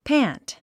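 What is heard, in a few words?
"pant", English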